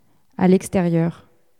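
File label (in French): "à l'extérieur", French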